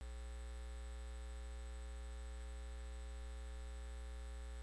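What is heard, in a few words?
"pick", spoken word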